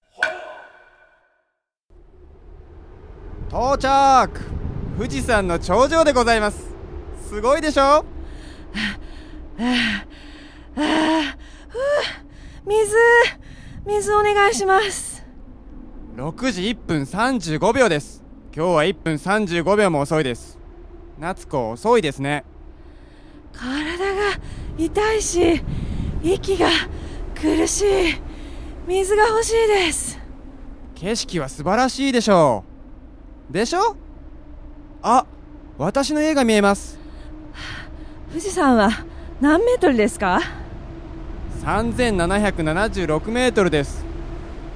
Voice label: dialog; JapanesePod101; Beginner Season 1